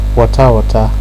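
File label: "water water", Nigerian Pidgin, male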